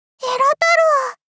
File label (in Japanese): えら太郎！ 幼女×高音域